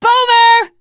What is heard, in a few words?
warn boomer, teengirl, voice; Left 4 Dead 2